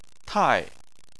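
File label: "tai", Chinese, fourth tone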